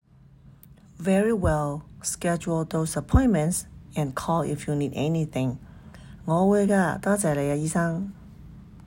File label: Medical Certified Oral Cantonese Practice